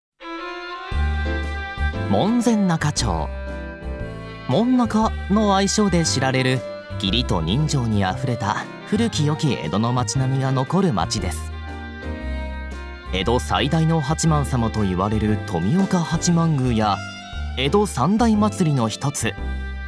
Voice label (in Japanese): ナレーション